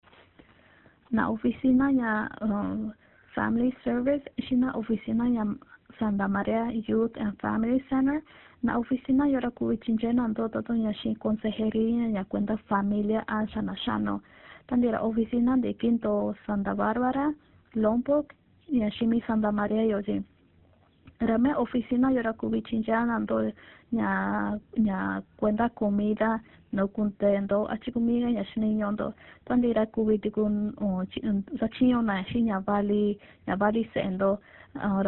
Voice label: Mixteco